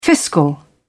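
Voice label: "fiscal", British English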